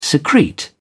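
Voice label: "secrete", British English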